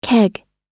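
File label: "keg", American English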